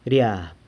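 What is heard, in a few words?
"riab", falls, San Lucas Quiaviní Zapotec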